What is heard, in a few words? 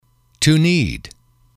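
to need, English, male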